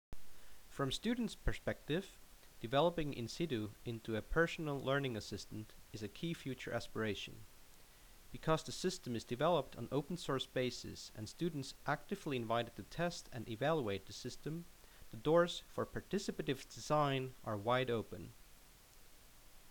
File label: Narration